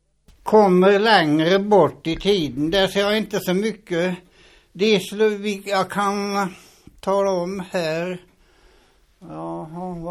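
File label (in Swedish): Stora Åby Östergötland, Svenska sagor, dialekter; Folkminnen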